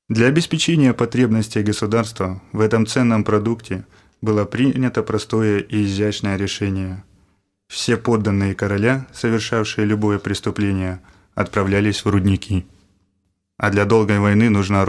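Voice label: russian male voice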